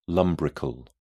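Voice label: "lumbrical", British English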